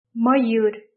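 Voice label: "peacock", English; grade4; vertebrates invertebrates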